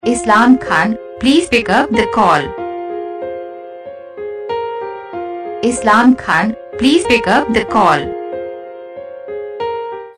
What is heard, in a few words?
please pickup saying, Ringtones; Your Name Ringtones A To Z Names